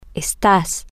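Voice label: you are temporary informal, Spanish, female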